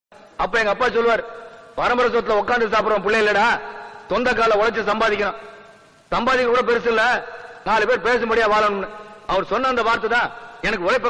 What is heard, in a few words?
Appa Dialogue Tamil; Suryavamsam